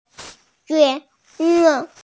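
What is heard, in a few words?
"jue2wu4", Mandarin Chinese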